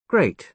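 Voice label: "great", British English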